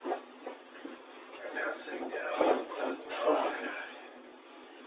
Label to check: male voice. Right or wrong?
Right